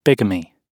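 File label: "bigamy", British English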